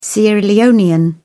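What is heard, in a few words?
"sierra leonean", British English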